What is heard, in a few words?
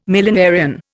"millenarian", Indian